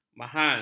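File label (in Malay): "mahal", Malay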